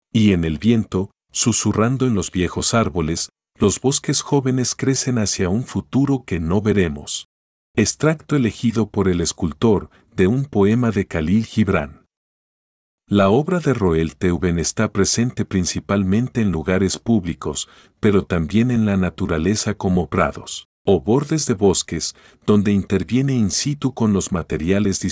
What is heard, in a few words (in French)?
espagnol